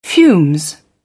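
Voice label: "fumes", British English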